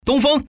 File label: common man